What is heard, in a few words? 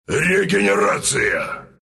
regen, ru; Wraith